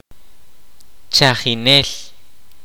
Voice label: kaqchikel; watchman